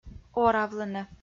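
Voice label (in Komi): "оравлыны", Komi, female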